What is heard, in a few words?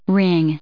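"ring", English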